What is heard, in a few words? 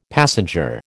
"passenger", American